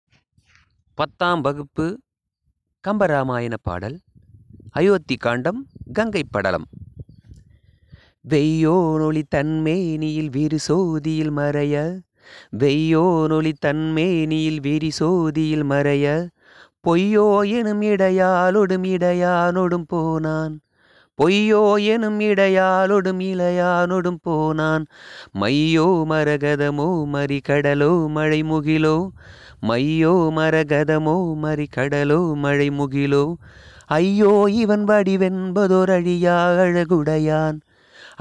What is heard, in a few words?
tamil memory poem; namma kalvi